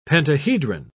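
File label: "pentahedron", English, British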